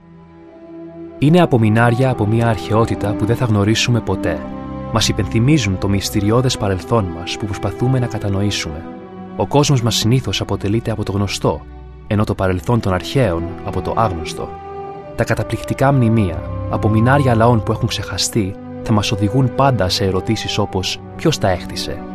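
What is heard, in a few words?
Greek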